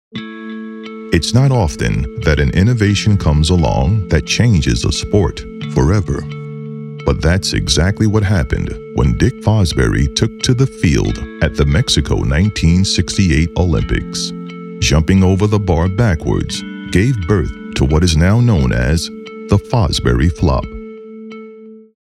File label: Short Narration